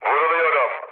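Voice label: aircraft damaged, mood low, Turkish; War Thunder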